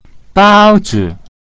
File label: "bao1 zi3", Mandarin; buns